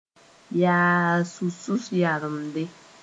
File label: "yaas-susus yãremde", Moore